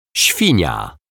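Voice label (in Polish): "świnia", Polish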